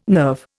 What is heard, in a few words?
"nerve", Indian